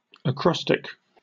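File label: "acrostic", British English; pronunciation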